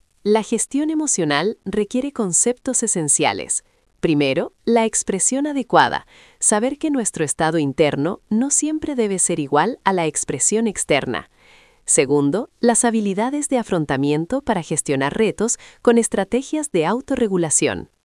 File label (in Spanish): locucion